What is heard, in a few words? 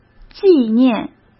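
"纪念", Mandarin Chinese; hsk; chinese-flashcards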